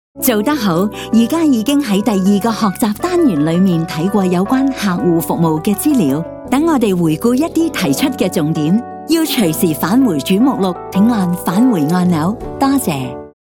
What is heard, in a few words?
trilingual